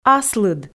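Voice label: "аслыд" Komi, female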